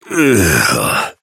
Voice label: pain low health warning; Operative

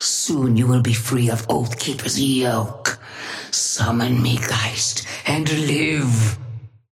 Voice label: female ally start; Patron; ghost; oathkeeper

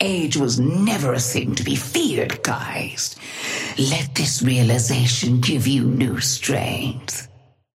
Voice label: female ally start; Patron; ghost oathkeeper

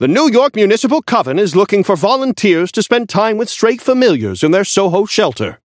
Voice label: Newscaster seasonal headline

